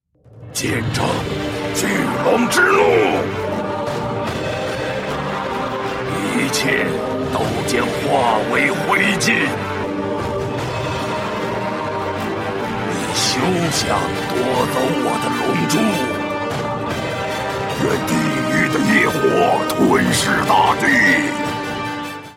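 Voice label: male other BOSS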